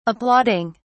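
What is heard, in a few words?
"applauding", British English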